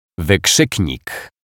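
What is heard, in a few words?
"wykrzyknik", Polish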